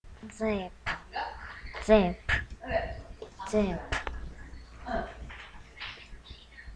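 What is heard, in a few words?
"zip", spoken word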